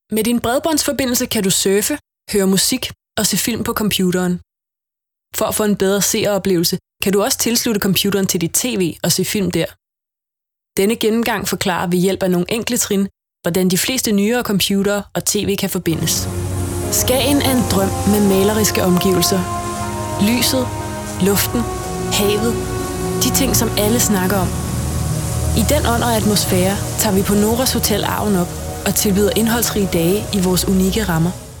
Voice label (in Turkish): Danca Kadin; seslendirme